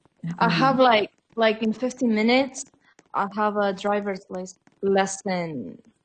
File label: stuttering